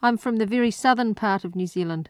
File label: New Zealand sentence